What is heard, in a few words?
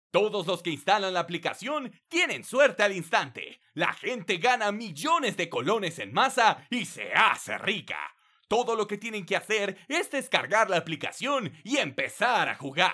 Spanish (LA) Male